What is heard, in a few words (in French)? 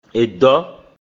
"Èdɔ̆", Travail; Mina